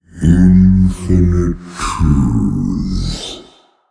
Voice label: Male Old God Thinking

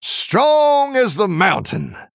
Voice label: Male Human Recruit Large Minion

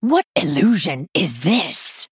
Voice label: Female Night Elf Interaction; Maiev

